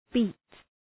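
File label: "beat", English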